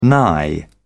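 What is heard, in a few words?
"nigh", British English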